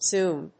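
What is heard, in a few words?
"zoom", English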